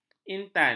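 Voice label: "intan", Malay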